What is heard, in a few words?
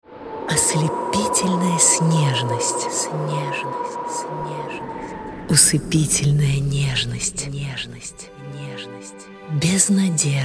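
Russian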